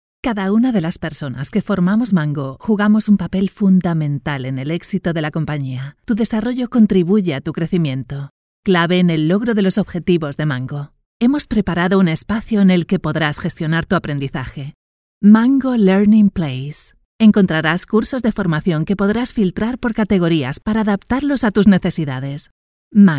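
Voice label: Castilian Spanish warm, Spanish Female Voiceover, commercial voiceover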